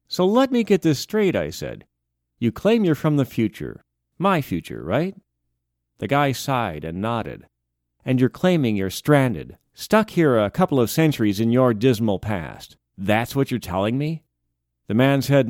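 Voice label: audiobook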